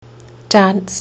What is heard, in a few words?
"dance", kiwi english